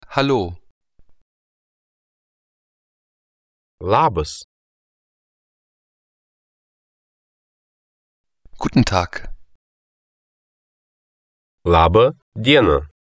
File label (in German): litauisch